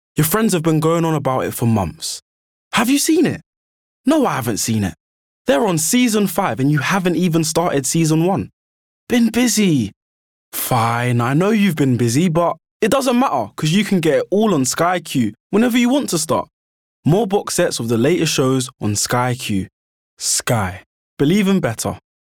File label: advert; Sky